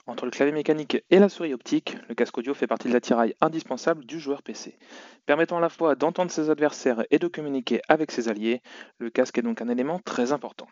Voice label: sony inzone h7 soundtest